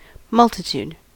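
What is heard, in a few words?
"multitude", US English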